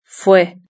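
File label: "fue", Spanish; verb-conjugator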